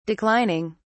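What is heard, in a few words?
"declining", British English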